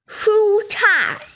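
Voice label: "shucai", Mandarin Chinese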